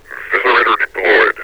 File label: viscerator deployed, metropolice vo; Half Life Alyx